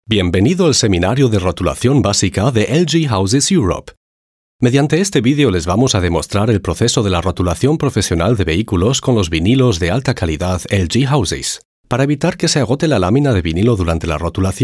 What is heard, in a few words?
e-learning